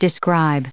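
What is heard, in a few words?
"described", US English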